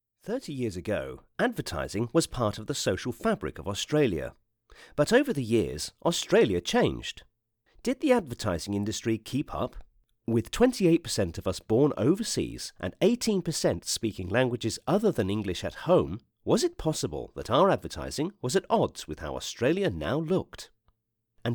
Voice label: Dialect